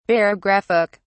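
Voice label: "barographic", British English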